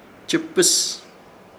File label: "Chɨpɨs", Ishir Chamacoco; filtrar